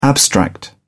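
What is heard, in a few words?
"abstract", British English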